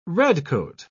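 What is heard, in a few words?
"redcoat", English, British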